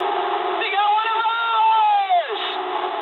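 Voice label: aircraft destroyed mood high, speech English; War Thunder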